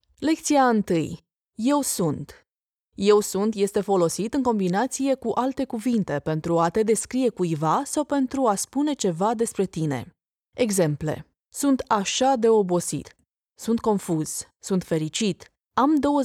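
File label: Romanian, e-learning; GoLocalise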